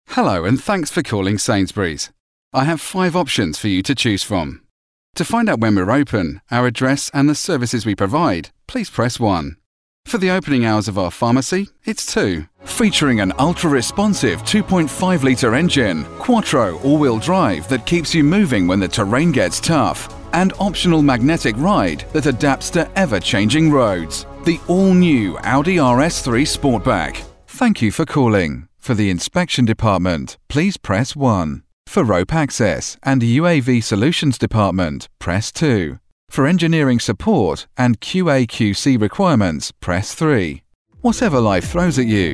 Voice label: telephone